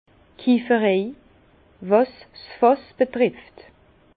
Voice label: "kieferei", Bas Rhin; tonnellerie

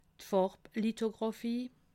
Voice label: "farblithographie", alsace

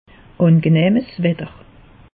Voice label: "wetter", alsace; meteo

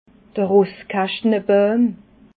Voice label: "rosskaschtenabauim", alsace

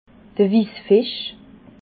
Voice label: "wissfisch", alsace

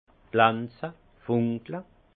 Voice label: "glanze", alsace; vin; scintiller

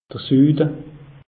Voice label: "sude", alsace; meteo

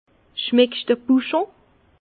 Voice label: "buschung", Bas Rhin; vin

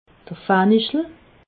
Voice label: "fenichel", alsace

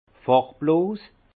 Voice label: "farbloos", Alsace; vin

